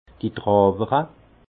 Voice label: "trawere", alsace; vin

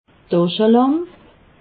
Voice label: "daschelamp", alsace

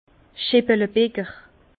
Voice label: "scheppelebiger", Bas Rhin; vin; accumule les chopines

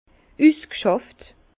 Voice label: "usgschafft", Bas Rhin; vin; évolué

